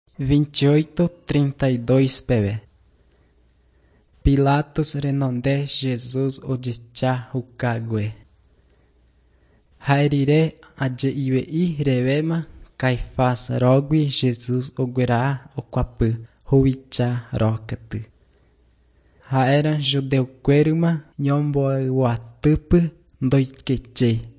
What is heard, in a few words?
john guarani mbya, bible